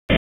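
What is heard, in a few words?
EMS DISP, radio; wake; p25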